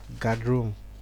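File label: "guardroom", Nigerian Pidgin, male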